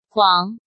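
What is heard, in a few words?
"Huáng", Chinese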